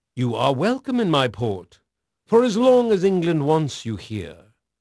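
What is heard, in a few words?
English male; Pirates Of The Caribbean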